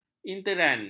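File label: "interen", Malay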